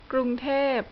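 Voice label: "Krung Thep", Thai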